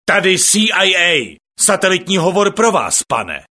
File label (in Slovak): satelitny hovor